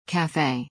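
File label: "cafe", English; café /kæféi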